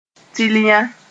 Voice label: "tilyã", Moore; giraffe